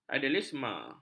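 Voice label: "idealisme", Malay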